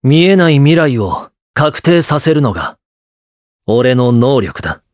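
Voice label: vox, voices, Japanese; Esker; kingsraid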